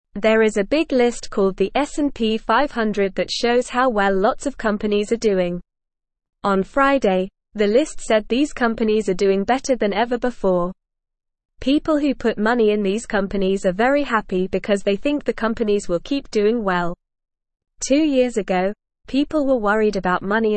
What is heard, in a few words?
English Newsroom Beginner NORMAL Reading